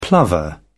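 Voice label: "plover", British English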